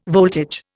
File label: "voltage", Indian